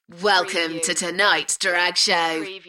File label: UK female, Welcome to tonights drag show; beanstalk audio